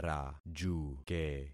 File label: "rajuke", concat syllables; Affixing experiment